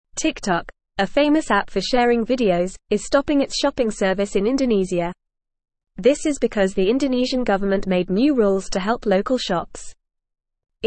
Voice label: English Lower Intermediate FAST Reading; TikTok stops shopping in Indonesia to follow rules; English Newsroom